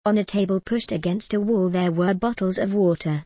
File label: en gb on a table pushed against a wall there were bottles of water